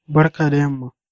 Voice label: good evening, hausa

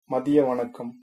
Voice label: good afternoon, tamil; மதிய வணக்கம்; madhiya vanakkam; Good afternoon (Afternoon greeting); formal Tamil

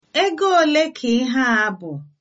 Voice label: how much, igbo

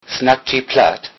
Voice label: do you speak, low saxon

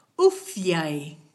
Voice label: cheers, kichwa

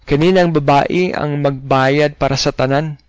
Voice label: pay, cebuano

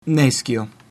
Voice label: no understand, latin

